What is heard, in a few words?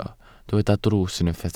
"drws", Welsh